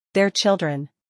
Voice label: "their children", English

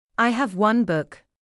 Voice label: "I have one book", English

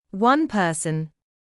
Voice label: "one person", English

